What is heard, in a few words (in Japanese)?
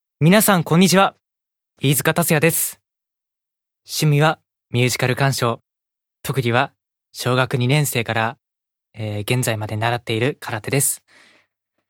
フリートーク